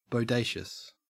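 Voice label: "bodacious", English, Australian accent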